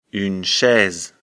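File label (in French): "chaise", French; afrikalan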